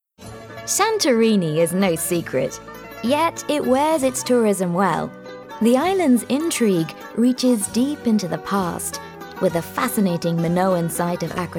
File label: Voiceovers UK Narrative; Narrative Reel